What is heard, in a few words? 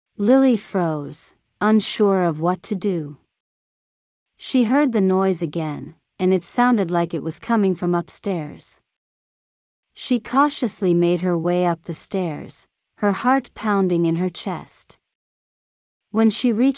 Children's Audiobook